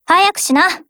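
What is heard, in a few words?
Japanese; PHRASE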